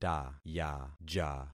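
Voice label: "tayaja", concatenated syllables; Affixing experiment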